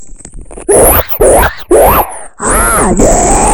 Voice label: goofy ahh voice

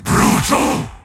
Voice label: brutal commentator

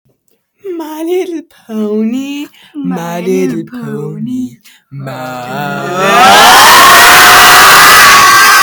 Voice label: voice crack xl

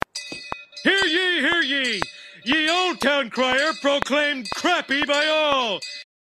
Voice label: town crier

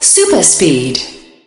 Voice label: speed announcer; marble blast ultra

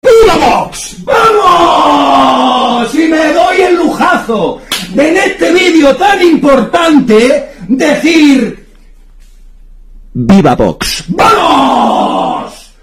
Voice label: viva vox loud

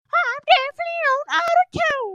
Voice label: autotune ready for launch

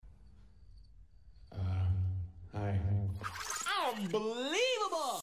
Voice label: hello lower volume; korben dallas

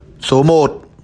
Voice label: number 01 vietnamese

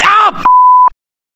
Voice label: ow bleep

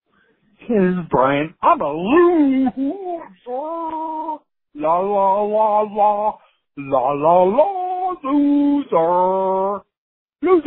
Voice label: voicemail

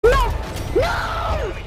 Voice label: no nooooo

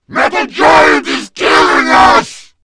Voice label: heavy evil

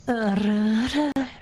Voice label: sexy ara ara

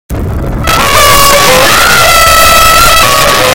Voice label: hog rider earrape

